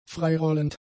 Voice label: "freirollend", German; adjectives

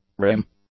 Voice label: "rim", English; nouns

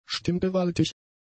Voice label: "stimmgewaltig", German; adjectives

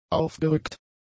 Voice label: "aufgerückt", German; adjectives

